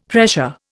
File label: "pressure", Indian; Dictionary